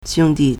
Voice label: "xiong1di4", Chinese